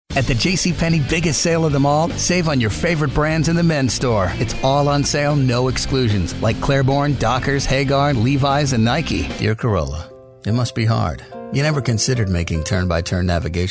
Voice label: Commercial